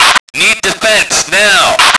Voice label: male defense, radio; lmctf